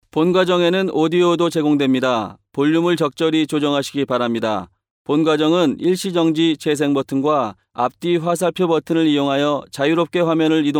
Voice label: Nachvertonung Film Sprecher Koreanisch

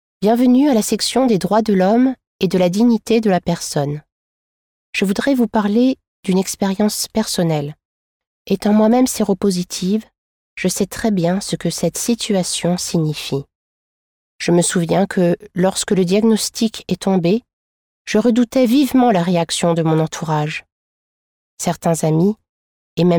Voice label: Sprecherin Französisch; Nachvertonung Film

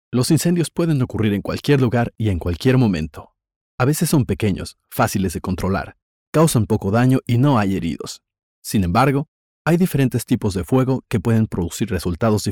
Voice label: Sprecher Spanisch LA; Nachvertonung Film

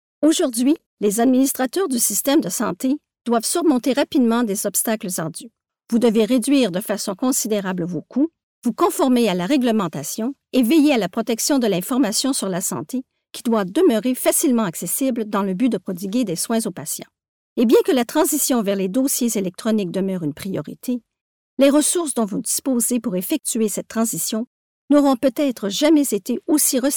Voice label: Nachvertonung Film Sprecherin Französisch Can

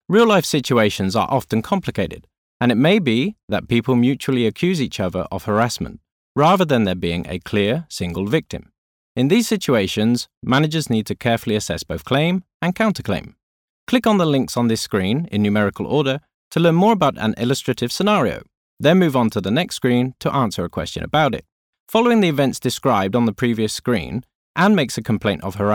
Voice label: Sprecher Englisch UK; Nachvertonung Film

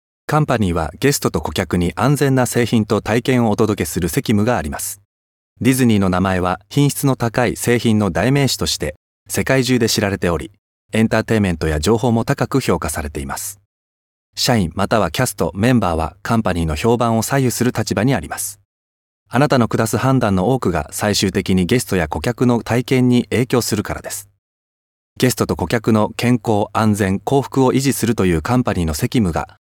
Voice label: Nachvertonung Film Sprecher Japanisch

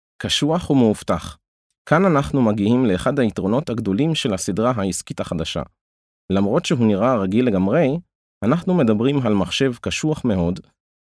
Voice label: Nachvertonung Film Sprecher Hebräisch

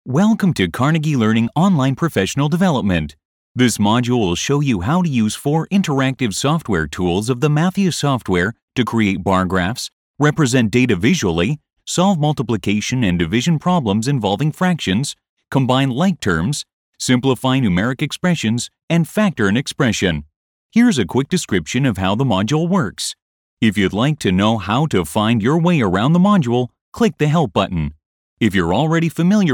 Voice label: Nachvertonung Film Sprecher Englisch US

